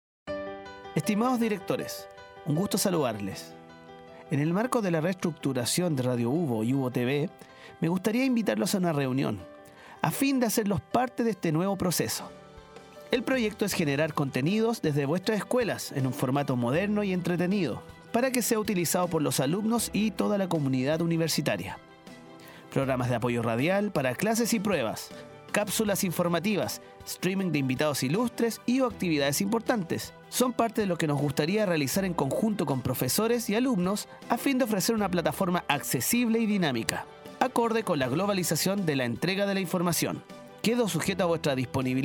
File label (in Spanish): Saludo Musicalizado